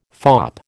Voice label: "fop", American

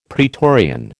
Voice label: "praetorian", American

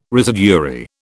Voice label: "residuary", British

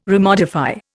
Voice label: "remodify", Indian

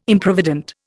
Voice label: "Improvident", Indian

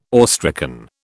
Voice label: "awestricken", British

